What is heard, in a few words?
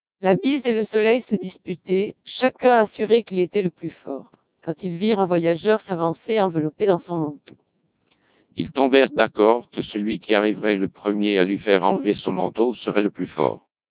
French, CODEC2 1200 bps